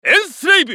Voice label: ウィザード男 エンスレイブ

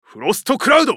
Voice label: ウォーロック男; フロストクラウド

